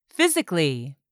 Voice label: "PHYSICALLY", English; 3000 words